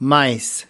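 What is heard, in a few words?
"mice", us, male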